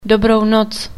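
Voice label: "Good night" Czech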